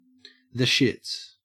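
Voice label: "shits", Australian English; pronunciations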